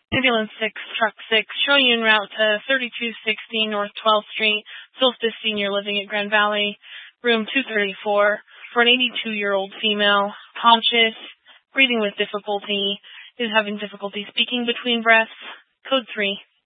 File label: Fire Dispatch, scanner